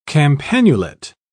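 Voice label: "campanulate", British English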